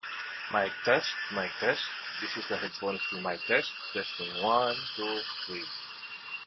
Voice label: Noisy; Jabra Talk 45